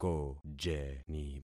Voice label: "kojeni", concat syllables; Affixing experiment

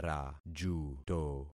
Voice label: "rajuto", concat syllables; Affixing experiment

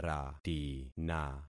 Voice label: "ratina", concat syllables; Affixing experiment

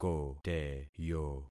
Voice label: "koteyo", concat syllables; Affixing experiment

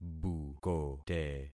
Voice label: "bukote", concat syllables; Affixing experiment

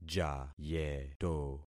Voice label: "jayeto", concat syllables; Affixing experiment

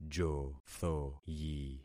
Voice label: "jothoyi", concat syllables; Affixing experiment

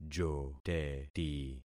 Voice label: "joteti", concat syllables; Affixing experiment

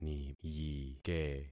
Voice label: "niyike", concat syllables; Affixing experiment

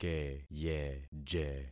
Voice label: "keyeje", concat syllables; Affixing experiment

